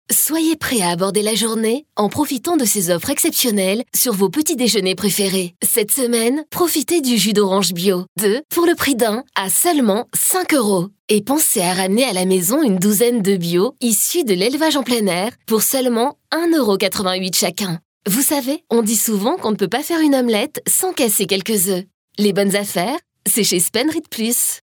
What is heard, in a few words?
Casual Decontrate